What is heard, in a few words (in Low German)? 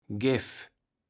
"Geff", Platt